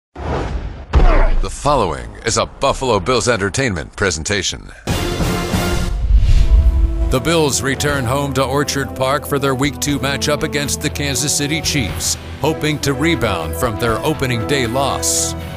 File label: Voice Over Artist Sports